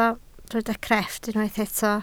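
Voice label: "crefft" Welsh, spoken word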